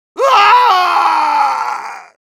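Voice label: Medic pain critical death, Spanish; Grito de muerte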